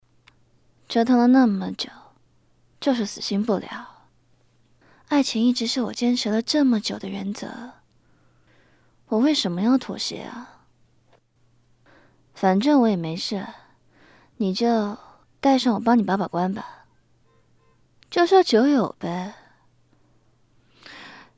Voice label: 模仿 选段; 舒淇

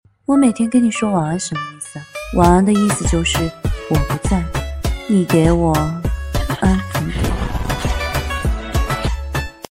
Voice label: 御姐音

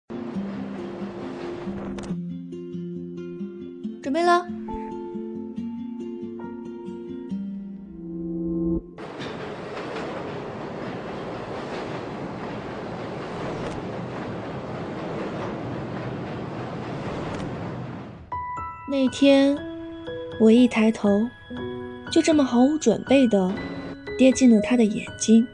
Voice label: 影视 念白

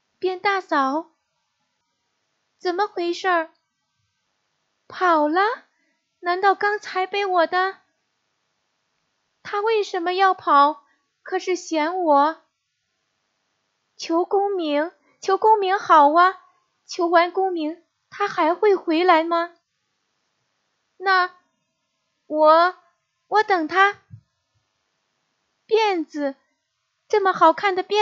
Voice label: 角色音

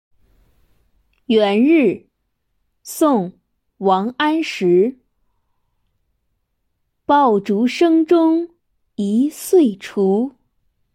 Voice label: 古诗词配音

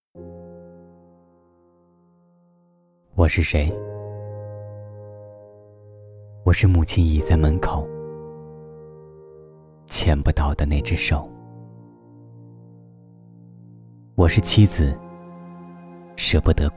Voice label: 旁白样音

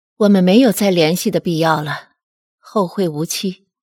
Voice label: 中年

